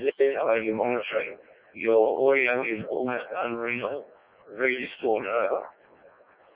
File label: decoded speech, parrot